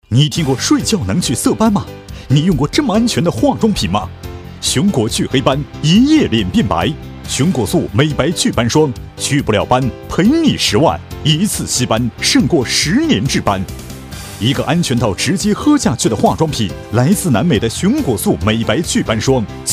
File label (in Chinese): 广告 电购 美白祛斑霜, 男国